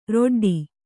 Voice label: "ರೆಡ್ಡಿ", Kannada